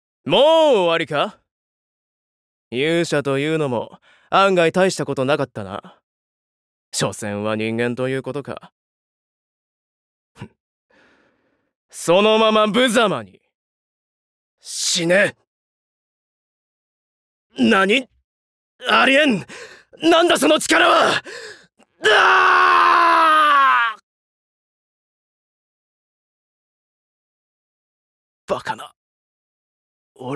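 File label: villain, Japanese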